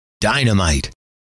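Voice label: "dynamite", male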